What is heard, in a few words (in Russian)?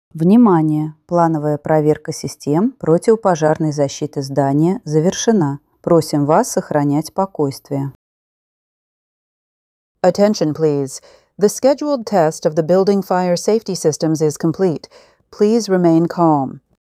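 оповещение проверка систем окончание ru en; rechevye soobshcheniya soue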